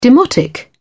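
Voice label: "demotic", British English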